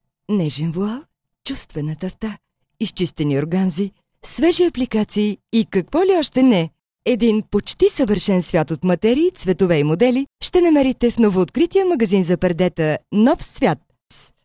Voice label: locutora bulgara

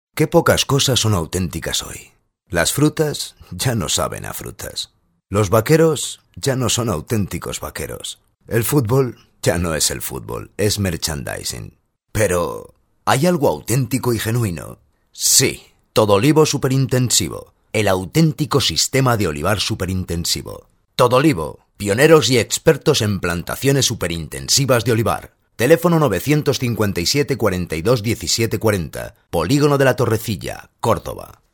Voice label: locutor

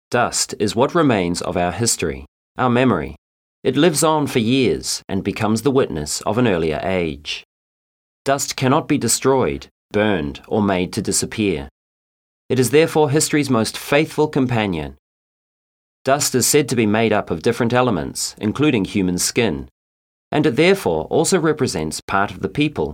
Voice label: locutor inglés; Nueva Zelanda

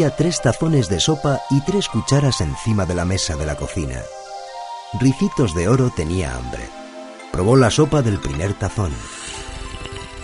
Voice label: cuentos populares, audiobooks audioguides spanish voiceovers; Bestsellers Collection Publishing - Learn English with folk tales. Made by all actors of Escena Digital Locutores.

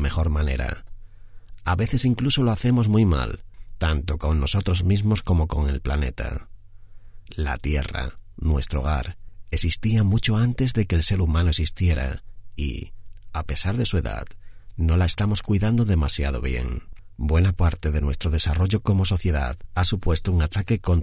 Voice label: documental, Locutor de doblaje profesional para documentales

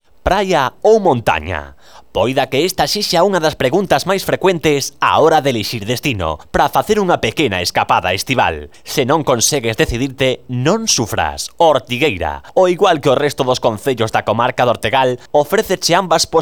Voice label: locutor galego; locutor gallego

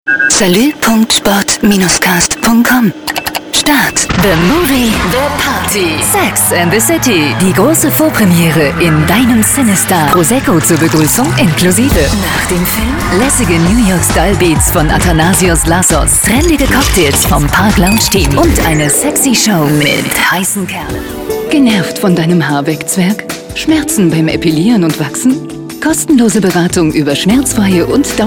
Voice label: locutora alemana, Deutsche Sprecherin

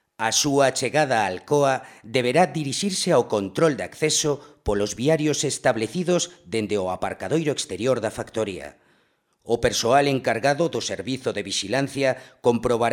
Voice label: locutor gallego; Galician

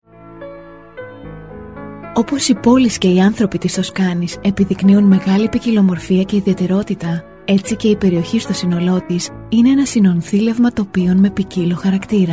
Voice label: locutora griega, Greek voiceovers; Greek female voiceover

